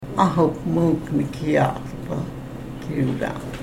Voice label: karuk